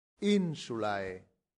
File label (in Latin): "insulae", latin; santillana